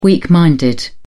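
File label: "weak minded", British English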